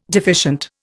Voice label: "deficient", Indian